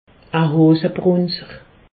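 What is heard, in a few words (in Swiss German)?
"hossebrunzer", Alsatian; medecine; être incontinent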